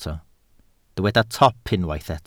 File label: "top", Welsh